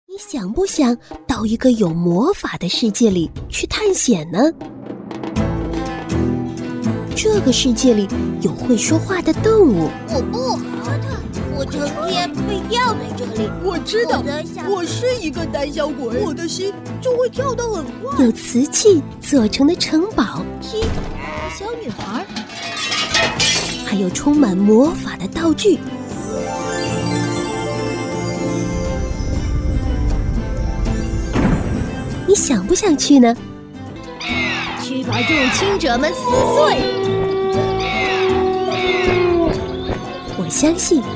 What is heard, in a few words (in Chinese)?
女 绘本 多角色配音; 学而思项目